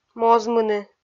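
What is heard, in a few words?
"мозмыны" Komi, female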